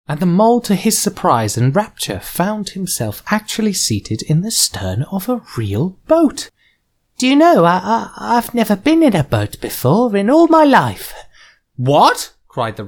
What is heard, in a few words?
Audiobook